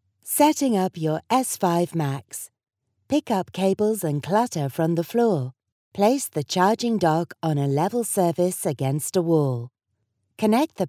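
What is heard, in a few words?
English British, female, voice over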